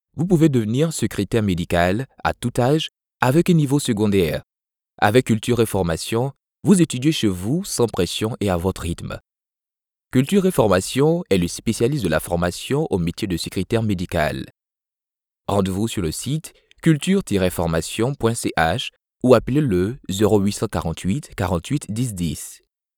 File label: french commercial; doubleur professionnel africain